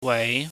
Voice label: "wei", pingyam

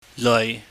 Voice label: "leui", chinese

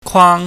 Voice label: "kwaang", chinese

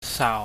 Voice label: "saau", Chinese

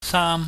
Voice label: "saam", Chinese

